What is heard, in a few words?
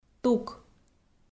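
"тук", Komi, female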